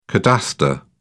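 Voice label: "cadastre", British English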